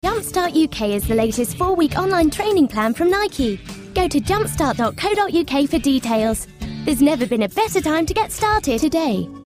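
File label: Australian English, Commercial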